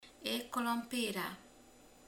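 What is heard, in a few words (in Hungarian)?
"krumpli", Hungarian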